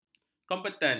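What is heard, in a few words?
"kompeten", Malay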